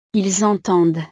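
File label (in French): "ils entendent", French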